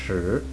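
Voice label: "chi", Chinese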